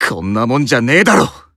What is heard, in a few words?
Emo Inspire Self